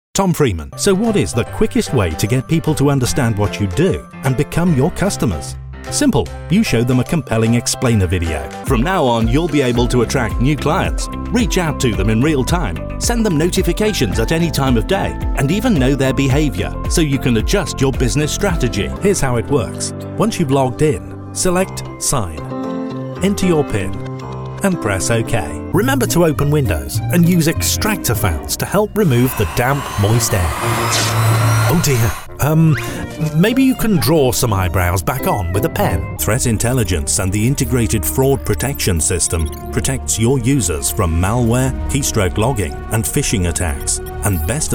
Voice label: Explainers